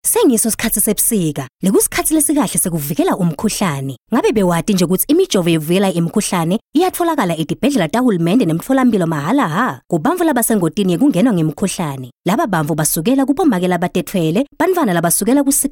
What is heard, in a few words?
Siswati female